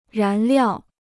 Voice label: "燃料", Chinese